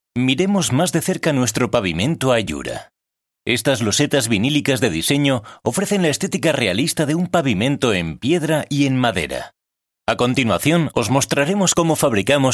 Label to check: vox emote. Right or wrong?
Wrong